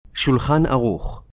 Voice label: "Shulchan Aruch", Hebrew